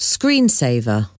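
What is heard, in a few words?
"screensaver", English word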